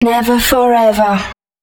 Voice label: female, spoken hooks